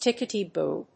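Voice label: "tickety-boo", English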